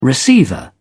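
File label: "receiver", British English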